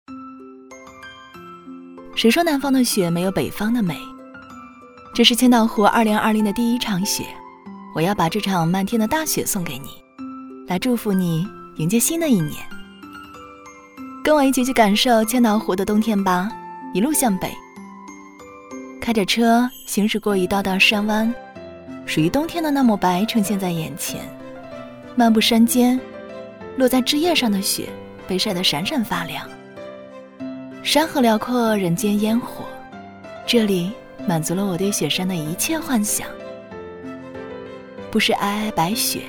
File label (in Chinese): 女 短视频; 千岛湖